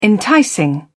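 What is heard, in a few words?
"enticing", English, British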